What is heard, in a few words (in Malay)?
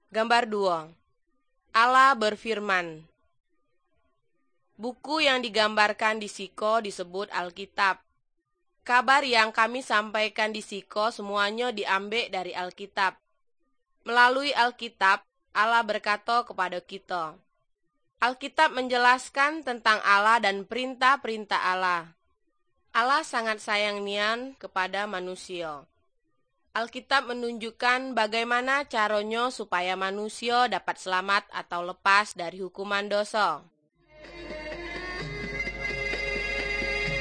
good news malay jambi